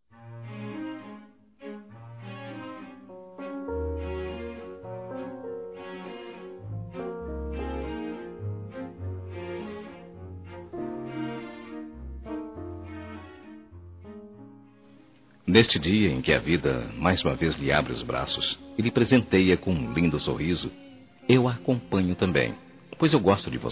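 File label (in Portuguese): Esposa Suave Masculino; Aniversários; ANIVERSARIO romantico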